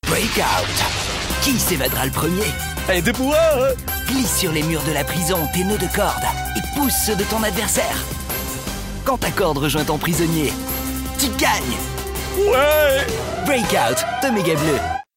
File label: TV AD; Break Out